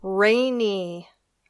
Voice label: "rainy", English